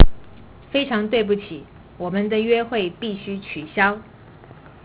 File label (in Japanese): Chugokugo